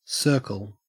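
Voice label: "circle", Australian English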